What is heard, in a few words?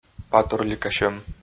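"патурликасьӧм", Komi-Zyrian, male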